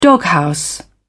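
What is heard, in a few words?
"doghouse", British English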